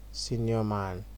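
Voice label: "senior man", Nigerian Pidgin, male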